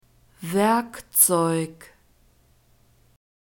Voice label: "werkzeug", German

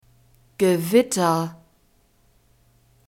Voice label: "gewitter", German; masculine

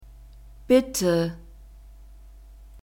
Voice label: "bitte", German

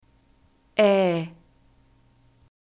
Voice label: "ä", German

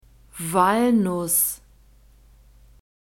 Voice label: "walnuss", German; fruits

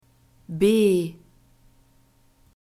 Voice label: "b", German alphabet

